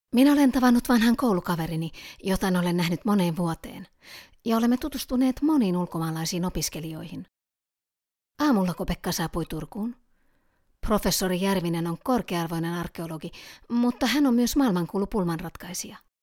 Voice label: Movie VO

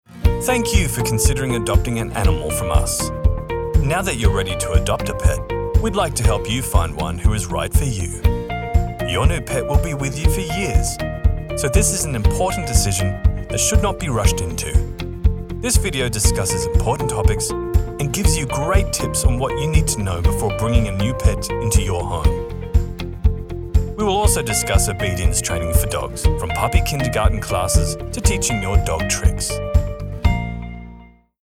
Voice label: VO Info Pet Adoption

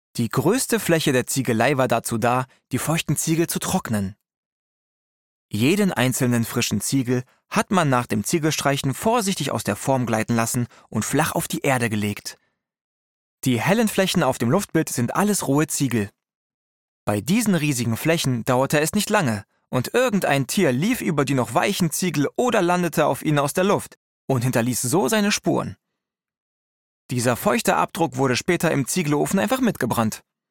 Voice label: Audioguide, German; Audioguide Museum Zehdenicker Ziegelei; voice

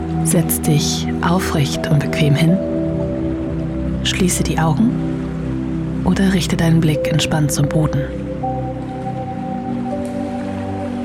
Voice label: Meditation

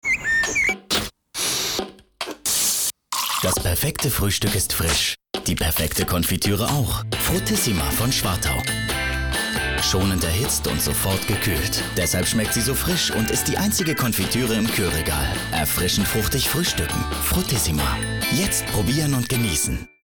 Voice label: tvc; schwartau fruttissima; perfektes fruehstueck

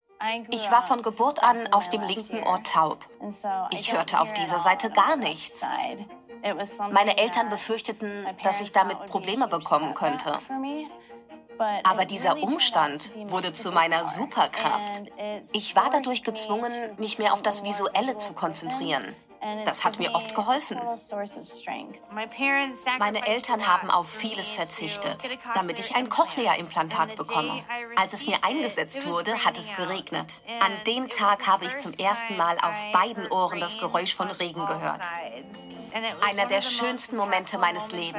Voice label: Voice Over; Clipped

